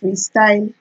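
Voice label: "freestyle", Nigerian Pidgin, female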